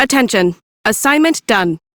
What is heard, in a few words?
Crew Task Done, female; Warframe; Railjack; Cephalon Suda